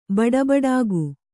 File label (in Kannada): "ಬಡಬಡಿಕೆ", Kannada